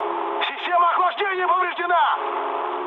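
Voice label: Russian damaged water mood med, speech; War Thunder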